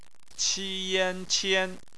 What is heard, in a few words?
"qian", Chinese phonetics